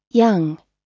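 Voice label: "young", English word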